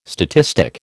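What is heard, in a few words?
"statistic", American English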